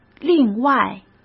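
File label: "另外", Mandarin Chinese; chinese-flashcards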